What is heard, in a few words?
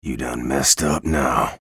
chatter positive; bjblazkowicz; frag